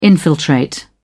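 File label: "infiltrate", British English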